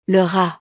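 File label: "le rat", French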